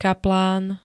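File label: "kaplán", Slovak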